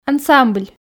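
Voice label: "ансамбль", Komi, female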